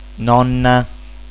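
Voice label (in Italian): "nonna", Italian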